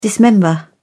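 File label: "dismember", English, British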